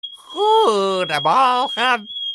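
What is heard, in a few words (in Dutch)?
"Goedemorgen", Dutch; Efteling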